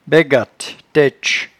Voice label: "baigatte", patois jura

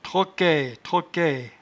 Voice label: "troquaie", patois jura

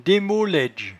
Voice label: "demolaidge", patois jura

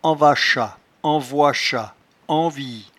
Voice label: "anvachat", patois jura

